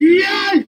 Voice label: "yipe", voice; ooh ahh

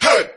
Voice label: "hey" group of men, voice; ooh ahh

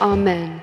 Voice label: "amen", voice

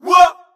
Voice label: what group of men

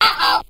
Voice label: "uh oh", cartoon

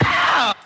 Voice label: "pow", ooh ahh; eddie murphy

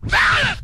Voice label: "ralluh", voice; ooh ahh

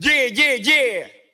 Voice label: "yeah yeah yeah", voice

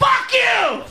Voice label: fuck you male shout; Voice; expletives

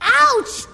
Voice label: ouch girl; ooh ahh; ow ouch

